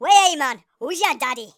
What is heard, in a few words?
perfect, Geordie; speech